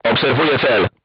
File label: voice message target lock, crew tanks polish